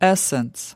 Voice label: "essence", us

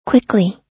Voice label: "quickly", us

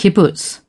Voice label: "kibbutz", British

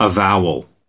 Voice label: "avowal", us

